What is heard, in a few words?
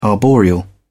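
"arboreal", British English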